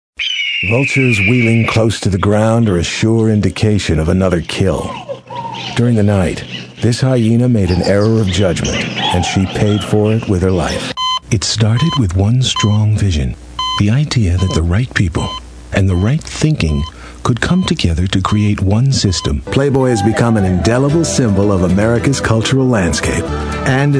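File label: narration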